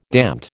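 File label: "damned", American English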